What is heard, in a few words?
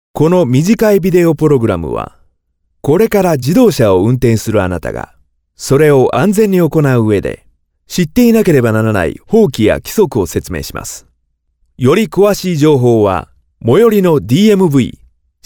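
Japanese, male, elearning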